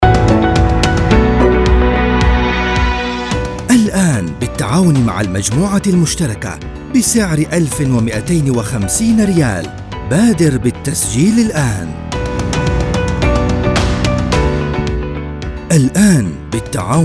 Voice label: turkish promos